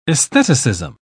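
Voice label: "aestheticism", English, uk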